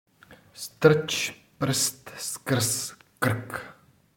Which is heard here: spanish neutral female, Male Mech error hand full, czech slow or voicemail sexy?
czech slow